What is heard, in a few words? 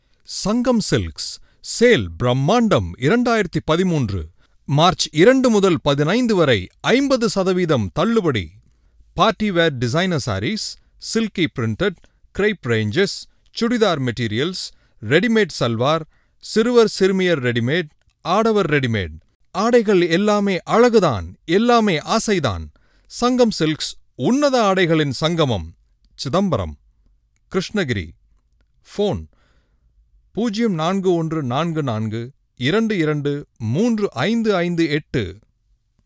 tamil